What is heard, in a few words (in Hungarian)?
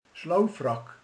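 "hálóing", Hungarian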